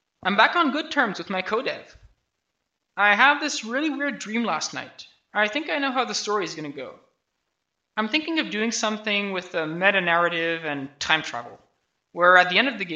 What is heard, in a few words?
voice, narration